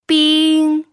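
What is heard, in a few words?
"bing", pinyin, first tone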